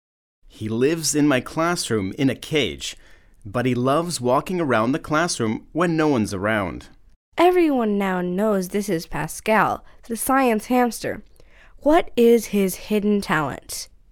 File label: Radio show; Osnovna skola; 5. razred; Skolska knjiga; Engleski jezik; Footsteps 1